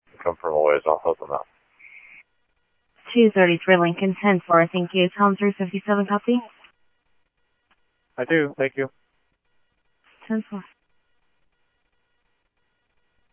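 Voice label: police department, scanner audio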